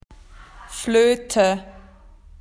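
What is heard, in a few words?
"whistle", Australian English; PictureDictionary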